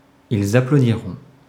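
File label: "ils applaudiront", French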